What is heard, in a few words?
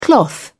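"cloth", British English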